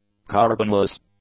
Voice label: "carbonless", English; adjectives